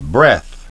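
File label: "breath", English pronunciation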